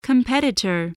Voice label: "competitor", english